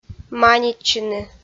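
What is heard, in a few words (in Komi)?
"манитчыны", Komi-Zyrian, female; kpv audio dict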